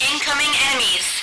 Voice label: incoming, radio female